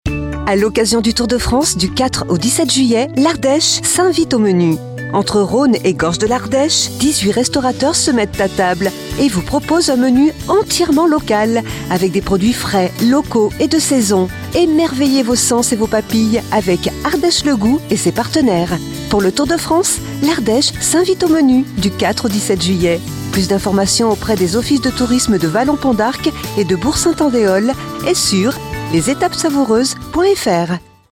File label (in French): spot radio